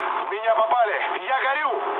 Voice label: on fire, mood low, speech ru; War Thunder